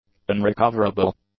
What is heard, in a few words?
"unrecoverable", english; adjectives